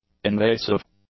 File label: "invasive", english; adjectives